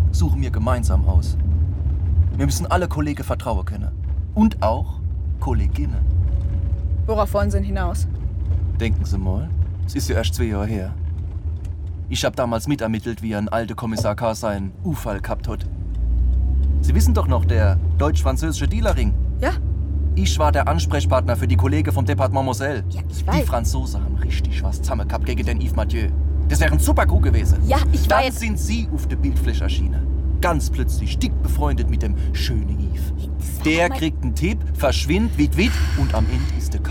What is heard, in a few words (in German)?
Hoerspiel de mit pfaelzischem Dialekt guter aber abgebruehter Bulle; SWR Radio Tatort